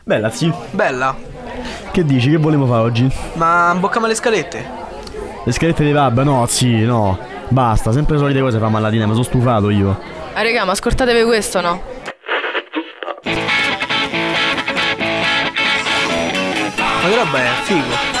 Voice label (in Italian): radio swam promo breve; Collettivo Autorganizzato Swamp